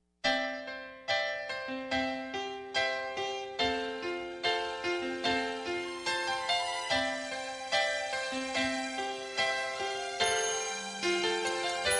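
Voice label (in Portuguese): aniv distante rom masculino, Telemensagem Aniversário Romântico, Distante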